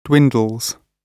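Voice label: "dwindles", British English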